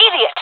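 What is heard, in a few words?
taking fire friendly, jungle female; vo; Counter Strike Model Pack; CS:GO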